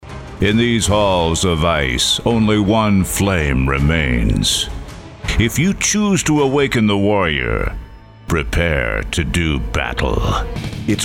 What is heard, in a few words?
Trailers